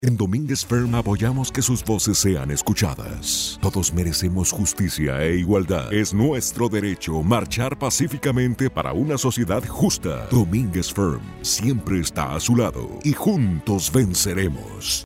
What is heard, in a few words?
radio spanish